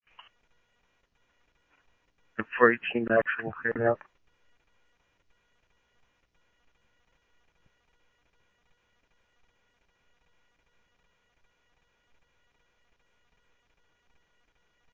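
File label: police radio scanner traffic, Mesa Simulcast; MESA PD Superstn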